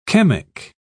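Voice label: "chemic", British English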